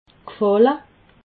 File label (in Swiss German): "gfalle", alsace